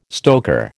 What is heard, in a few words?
"stoker", American